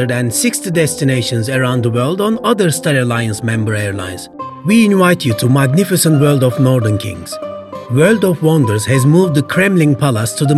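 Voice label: Turkish accented English; GoLocalise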